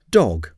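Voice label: "dog", English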